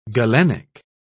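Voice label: "galenic", British English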